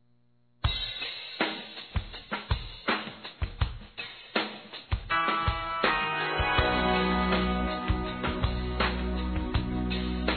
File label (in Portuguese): DESEJANDO SUCESSO Feminino; Telemensagens; Telemensagens 2017